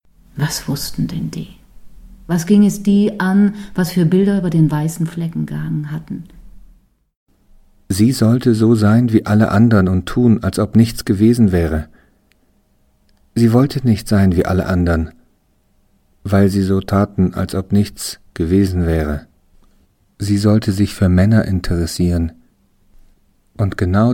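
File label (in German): Hörproben Auswahl verschiedene Bücher Texte Sprecher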